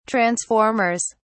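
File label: "transformers", British English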